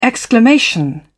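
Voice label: "exclamation", British English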